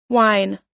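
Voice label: "wine", US English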